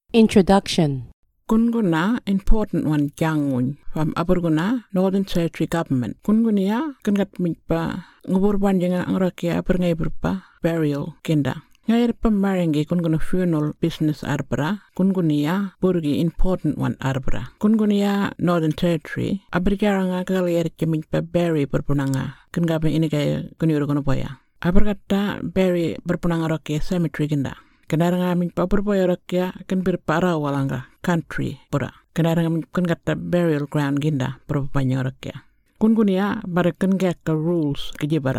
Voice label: Burarra; Burial Notification; Introduction; information on the Burial and Cremation Act 2022; Aboriginal languages; information recorded in 18 aboriginal languages; talking in language